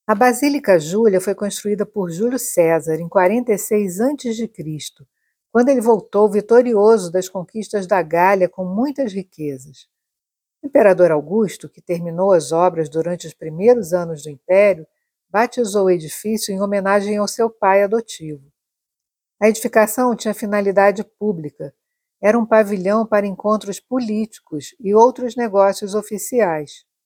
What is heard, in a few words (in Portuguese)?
Audioguia; Basilica Julia; Julio Cesar